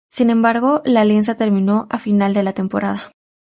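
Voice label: "alianza", Spanish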